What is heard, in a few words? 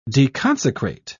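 "deconsecrate", British English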